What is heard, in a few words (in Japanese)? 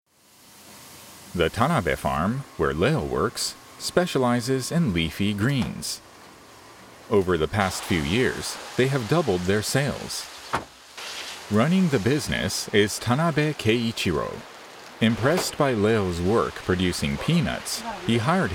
農家ドキュメンタリー 番組 TV ラジオ ウェブ等 落ち着いた 無感情